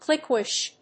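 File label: "cliquish", English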